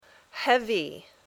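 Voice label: "heavy", English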